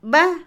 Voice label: leche, Otomí, mujer; Comida; INALI

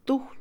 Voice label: armas, Mixe, Mujer; INALI

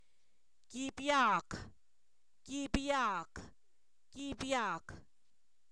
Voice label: hacha, Zapoteco, san miguel albarradas; objetos inanimados

